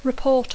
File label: "report", English